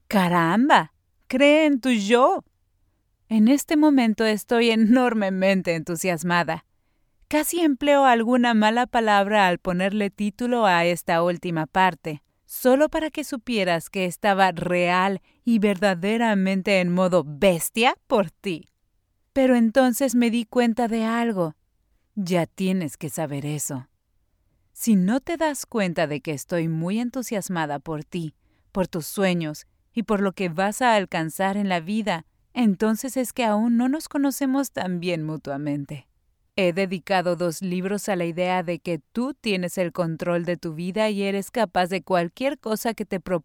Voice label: spanish latin american audiobooks

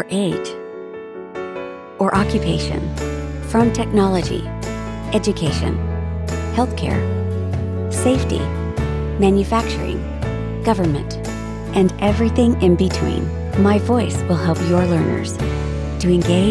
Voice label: english american e-learning

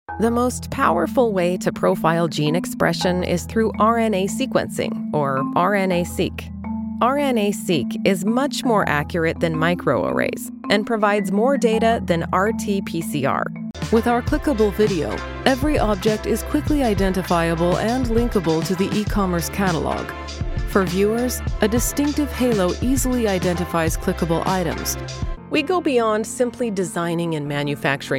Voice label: english canadian explainer videos

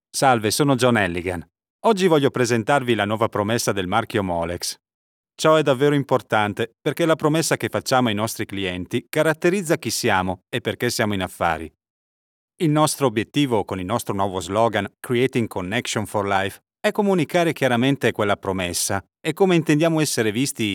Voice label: italian corporate videos

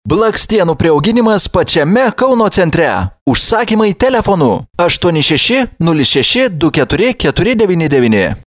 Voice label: lithuanian commercial

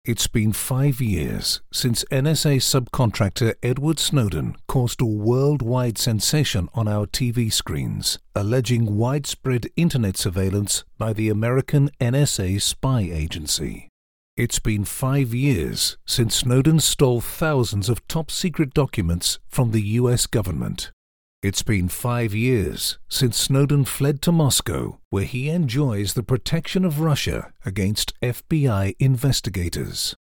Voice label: english british documentaries; professional voice-over

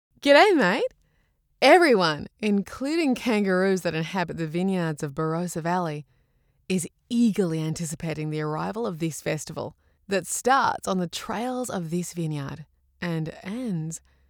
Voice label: english australian announcements

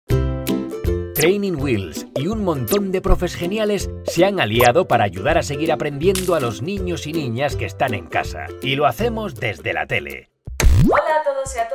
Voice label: spanish tv shows

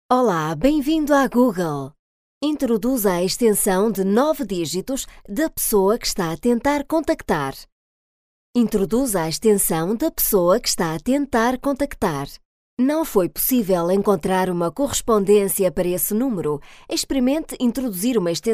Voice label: portuguese ivr

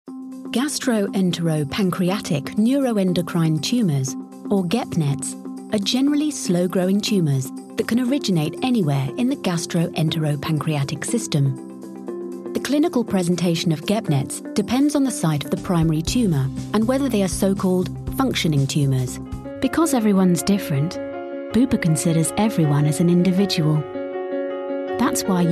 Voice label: english british medical narration

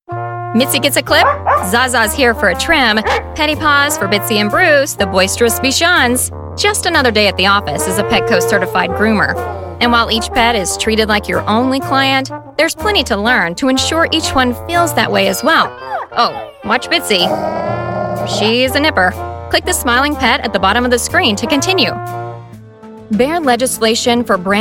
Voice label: english american training e-learning

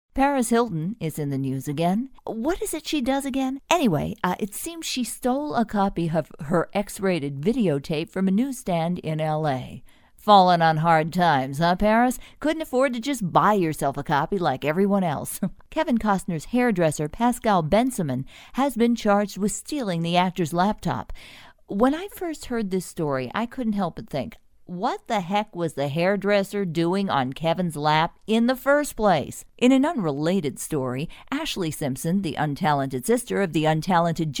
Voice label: english american podcasts

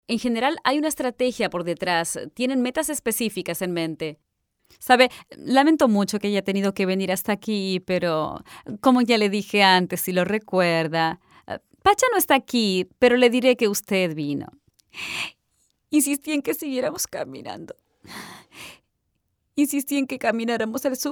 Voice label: spanish latin american others

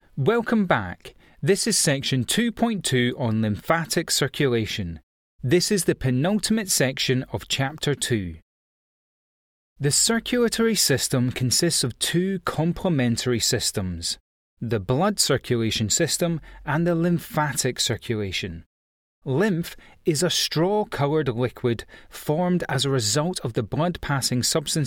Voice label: english british e-learning

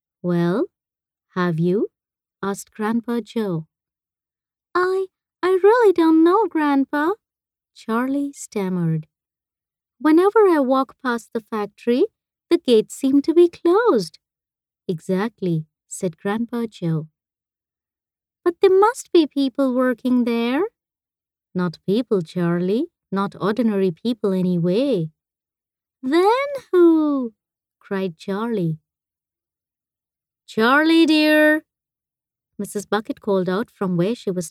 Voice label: english indian audiobooks

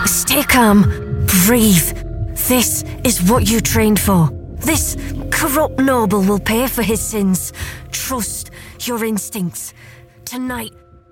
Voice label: english british video games

